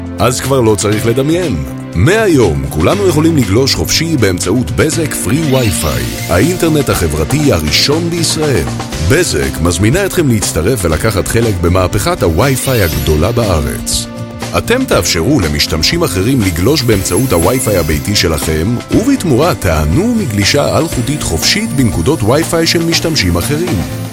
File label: hebrew commercial; Israel